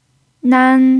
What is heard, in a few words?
"nan1", chinese pinyin